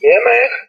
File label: "yeah man", voice; ooh ahh